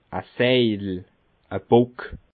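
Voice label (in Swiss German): "seidel", Bas Rhin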